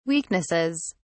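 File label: "weaknesses", British English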